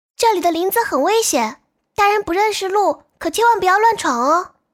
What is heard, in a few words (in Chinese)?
清纯少女